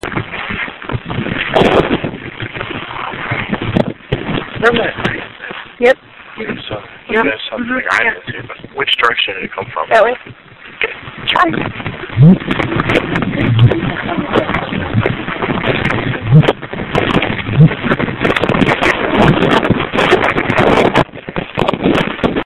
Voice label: whisper